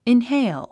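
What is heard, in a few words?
"inhale", English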